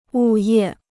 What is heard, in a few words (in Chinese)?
"物业", Chinese